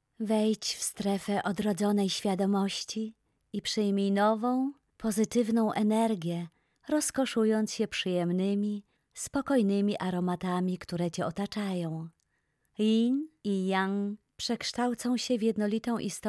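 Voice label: polish meditation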